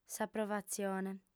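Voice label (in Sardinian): "s'aprovatzione", female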